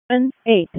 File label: EMS ALERT, p25; wake